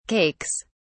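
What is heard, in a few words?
"cakes", English, British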